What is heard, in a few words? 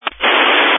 Family Radio Service (FRS)